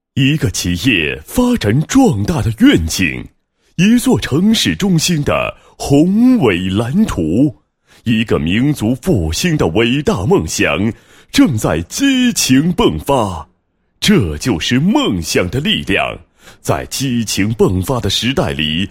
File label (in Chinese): 男 专题 专题片; 路桥第六工程处